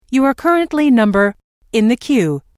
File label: You Are Currently Number In The Queue, Telephone prompt